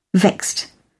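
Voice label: "vexed", British English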